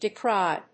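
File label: "decry", English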